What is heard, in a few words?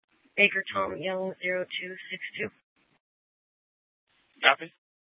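police dispatch radio, scanner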